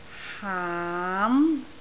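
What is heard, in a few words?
"haam2", Cantonese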